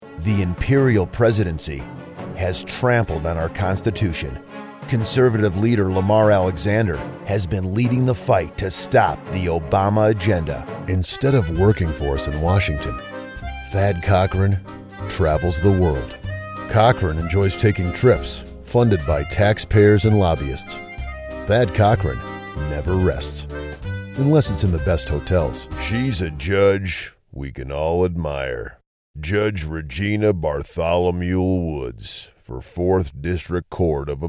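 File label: Political, voice over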